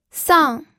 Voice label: "sang4", pinyin

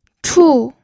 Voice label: "chu4", pinyin

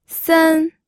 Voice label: "sen1", pinyin

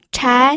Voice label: "chai2", pinyin

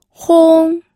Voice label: "hong1", pinyin audio

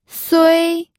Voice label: "sui1", pinyin

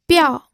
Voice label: "biao4", pinyin

